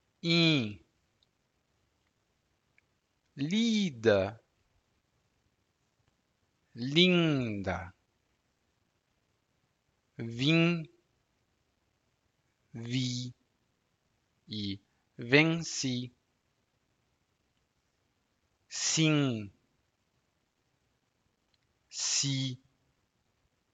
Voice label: nasal sounds